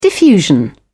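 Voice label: "diffusion", en, British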